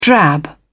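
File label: "drab", British English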